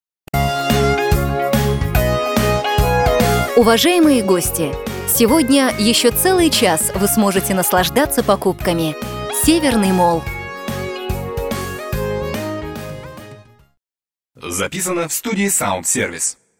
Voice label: female